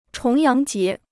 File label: "重阳节", Chinese